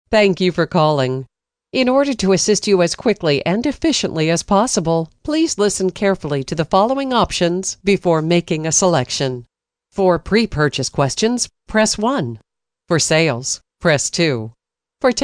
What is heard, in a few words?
female ivr; female voice